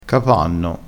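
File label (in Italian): "capanno", dialettale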